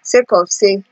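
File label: "sake of sey", Nigerian Pidgin, female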